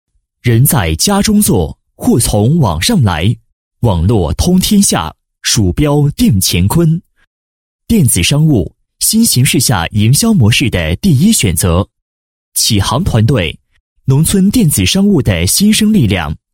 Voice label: 男 广告; 网络 电子商务 人在家中坐货从网上来

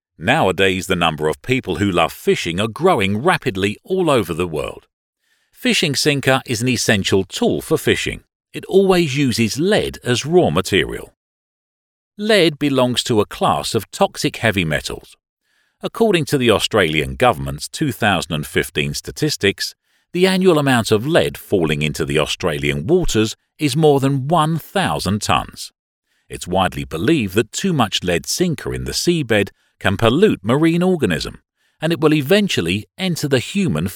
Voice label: 英文翻译; 小猫儿

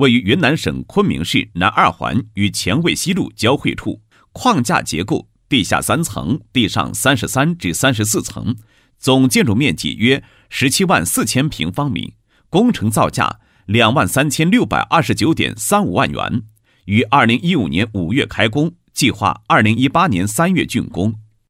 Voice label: 男, 项目; 创集团标杆工程项目管理介绍

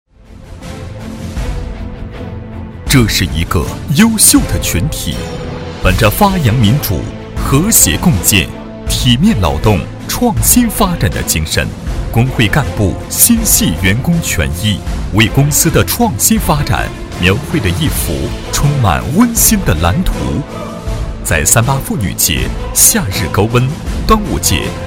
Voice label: 男 员工电视台颁奖词, 国语男声配音; 大气专题

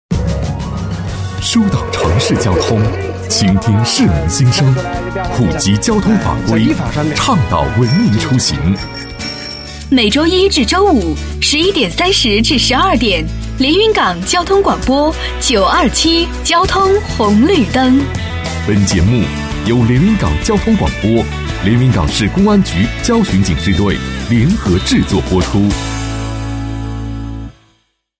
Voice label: 男 片花 节目大片头, 国语男声配音 浑厚大气

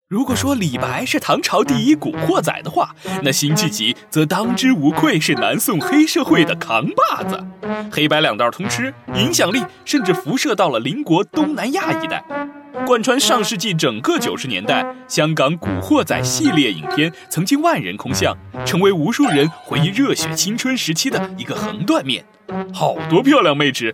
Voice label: 男 搞笑类 视频节目, 国语男声配音

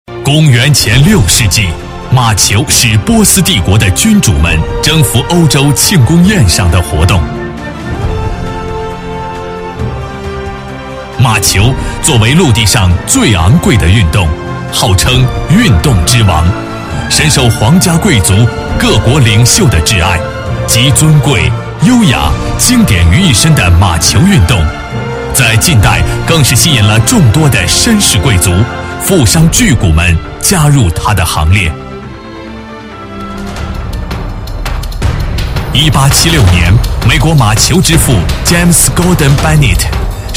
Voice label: 男40 大气解说 运动, 国语男声配音

